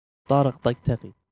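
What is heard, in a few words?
Kuwaiti Arabic, twisters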